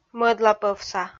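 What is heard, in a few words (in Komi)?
"мӧдлапӧвса", Komi, female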